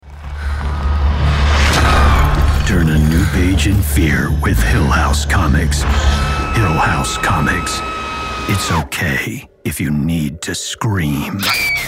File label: men promos; Masculine voice from outdoorsman to next door.